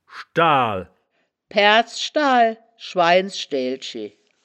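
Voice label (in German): "schdaal", wittlicher woerterbuch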